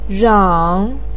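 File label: "rang", Mandarin pinyin, third tone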